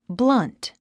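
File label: "blunt", English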